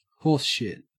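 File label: "horseshit", Australian English; pronunciations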